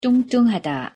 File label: "뚱뚱하다", Korean; pronunciation